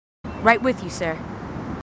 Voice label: marines female, marine tough

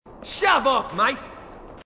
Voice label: marine, aussie; h2dialogue

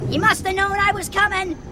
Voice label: grunt; h3dialogue; staten

